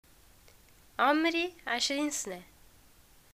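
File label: "getacqua im 20 years old", Tunisian Arabic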